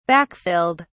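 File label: "backfilled", British English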